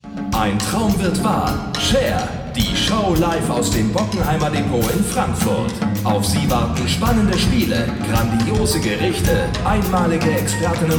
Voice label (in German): Showansage Announcer